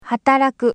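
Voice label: "hataraku", Japanese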